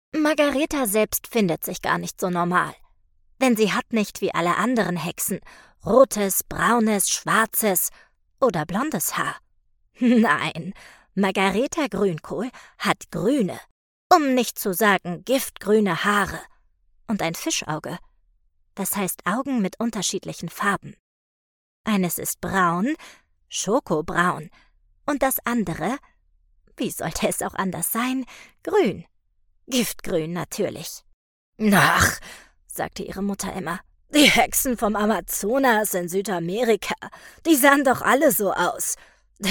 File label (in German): Kinderhoerbuch; Magaretha Gruenkohl